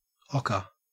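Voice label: "ocker", Australian English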